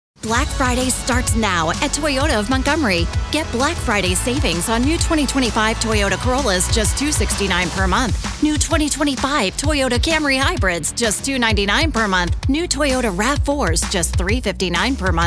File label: american commercial automotive, American Female Voice